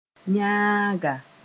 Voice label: "yaanga", Moore; east